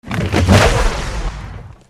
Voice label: Male Large